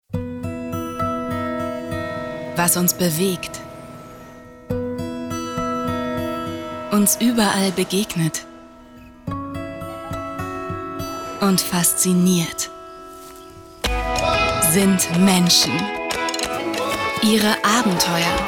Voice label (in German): Werbung Sanft